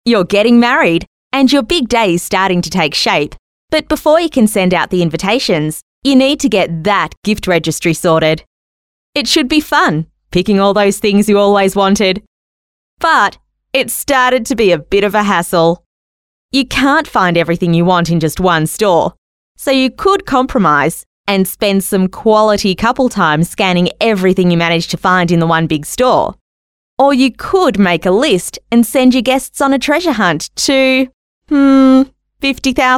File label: female talent corporate